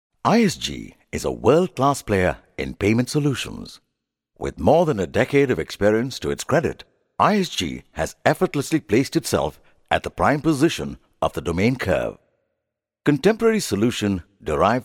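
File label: English Indian Male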